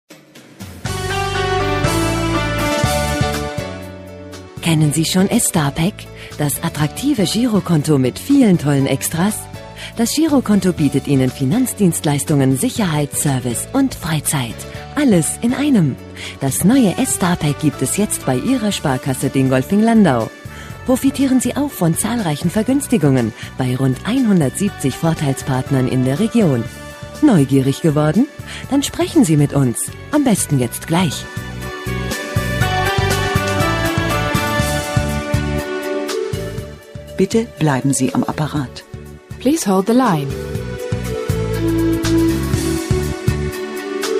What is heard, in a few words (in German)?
Werbespot; Sparkasse Dingolfing-Landau